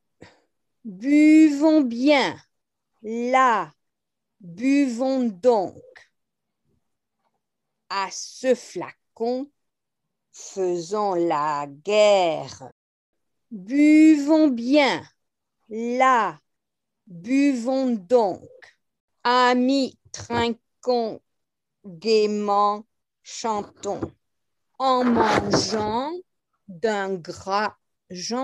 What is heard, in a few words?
Tourdion Tenor read out